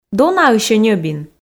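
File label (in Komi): "донаысь-ӧ ньӧбин", Komi, female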